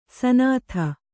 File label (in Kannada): "ಸುನೀತ", Kannada